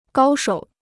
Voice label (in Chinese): "高手", Chinese